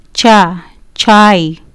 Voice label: "chai", Kiswahili